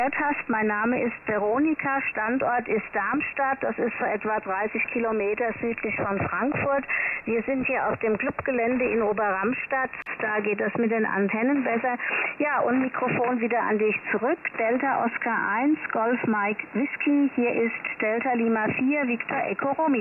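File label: Amateurfunk 3785khz LSB 2.7khz, Kurzwelle; Arinst Dreamkit V2D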